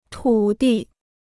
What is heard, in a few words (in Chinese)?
"土地", Chinese